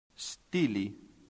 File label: "styli", latin; santillana